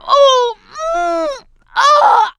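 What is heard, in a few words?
choke, male; survivor; producer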